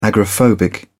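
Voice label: "agoraphobic", British English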